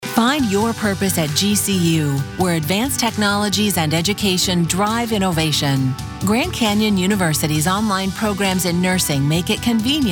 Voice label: Commercial